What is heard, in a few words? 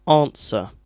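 "answer", British English; pronunciations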